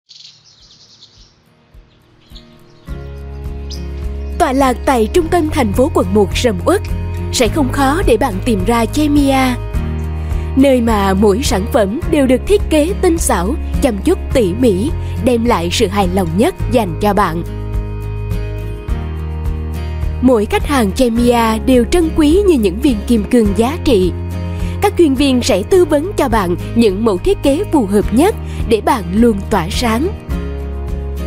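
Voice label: Vietnamese South Female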